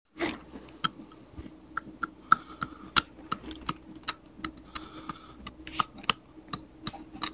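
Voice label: "na2", Mandarin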